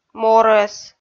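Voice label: "морӧс" Komi, female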